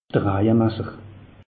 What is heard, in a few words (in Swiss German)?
"raje masser", alsace; meteo